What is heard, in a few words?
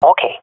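affirmation, swat female; vo; Counter Strike Model Pack; CS:GO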